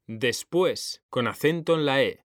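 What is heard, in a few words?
"DESPUÉS", Spanish; mekanta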